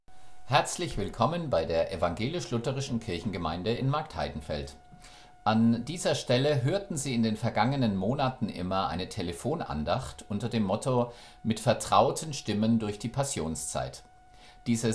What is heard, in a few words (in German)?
Telefonandachten Schlusswort